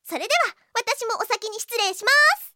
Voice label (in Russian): zvuki s golosom iaponskoi anime devushki